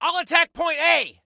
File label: ATTACK, Soldier Male